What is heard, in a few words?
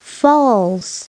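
"falls", English; SoftKey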